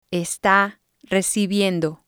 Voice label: "esta recibiendo", spanish